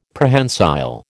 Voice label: "Prehensile", American

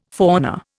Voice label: "fauna", Indian

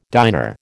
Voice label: "diner", American

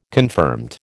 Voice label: "confirmed", American

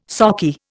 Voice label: "sockeye", Indian

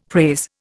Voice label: "praise", Indian; Dictionary

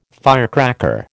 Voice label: "firecracker", American

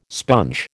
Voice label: "sponge", American

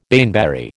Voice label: "baneberry", American

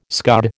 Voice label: "scud", American

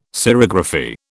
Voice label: "serigraphy", British

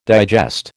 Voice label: "digest", American

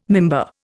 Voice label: "mimbar", Indian

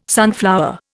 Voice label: "sunflower", Indian

